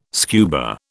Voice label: "scuba", British